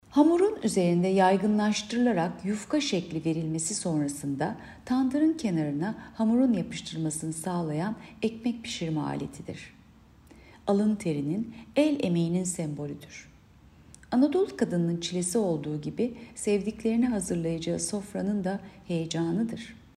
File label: turkce